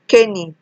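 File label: "caning", Nigerian Pidgin, female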